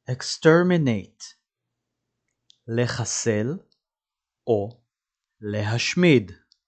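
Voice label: "exterminate" English, "לחסל להשמיד" Hebrew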